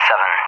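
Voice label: "7", English; Digits; DCS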